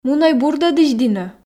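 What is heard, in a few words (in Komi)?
мунӧй бурдӧдысь дінӧ, Komi, female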